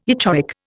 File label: "echoic", Indian